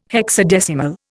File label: "hexadecimal", Indian